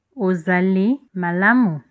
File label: how are you, Lingala; Ozali malamu?; (Are you well?)